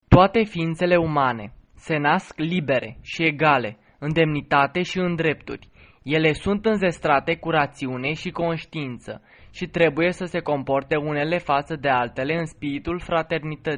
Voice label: udhr ro